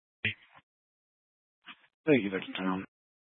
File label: Police Department Dispatch, scanner; Grand Junction Police Department Dispatch